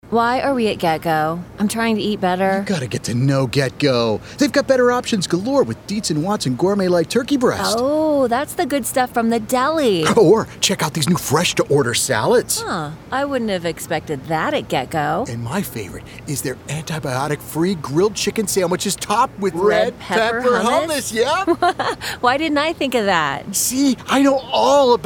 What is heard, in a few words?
commercial men